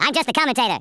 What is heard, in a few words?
"leave me alone", US Sports, speech